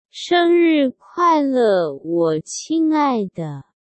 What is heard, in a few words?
happy birthday my dear chinese traditional